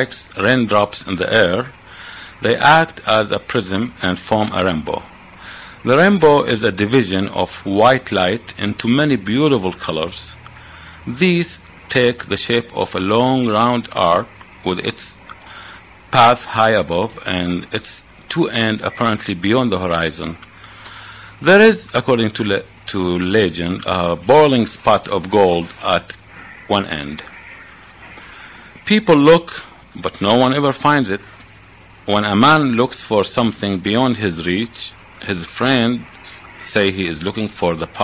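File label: iraq, International Dialects of English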